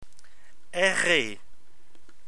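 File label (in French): "errer", French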